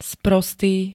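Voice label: "sprostý", Slovak; hlúpy, tupý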